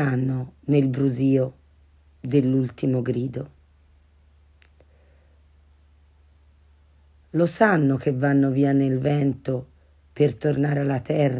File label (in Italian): foglie d autunno poesia